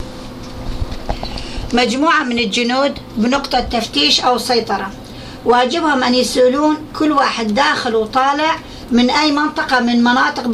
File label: Dialogue at check point in Baghdad, Iraqi Dialect